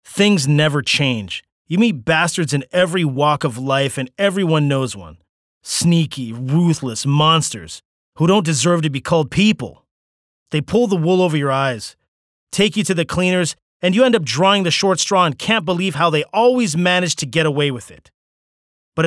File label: EngUS Cool; Bastards